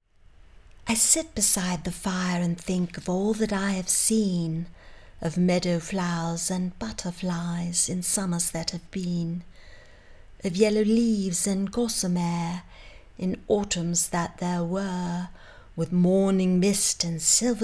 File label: Poem